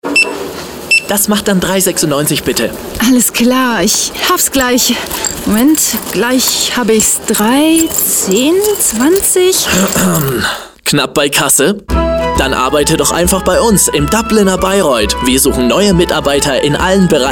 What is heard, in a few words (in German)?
Radiospot; Dubliner Bayreuth